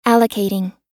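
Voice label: "allocating", US English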